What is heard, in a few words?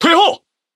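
Speech Quick Commands Back Ship, Chinese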